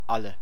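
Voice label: "Alle", German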